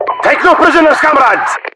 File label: boost, voiceovers english